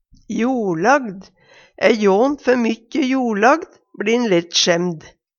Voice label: joLlagd, Numedalsmål

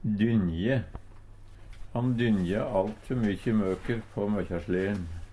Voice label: "dynje", Numedalsmål; Verb

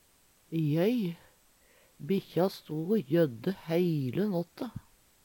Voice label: joy, Numedalsmål; jøy; jøyr; jødde; Verb

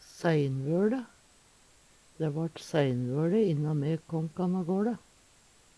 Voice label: "seinvøLe", Numedalsmål; Dæ vart seinvøLe inna me kåm kan agåLe.; Adverb

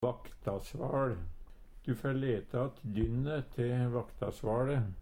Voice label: "vaktasval", Numedalsmål; Du fær leta att dynne te vaktasvaLe.; Substantiv hokjønn

